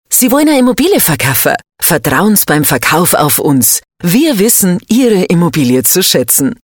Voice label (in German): bayerisch